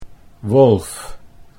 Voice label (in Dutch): "wolf", Dutch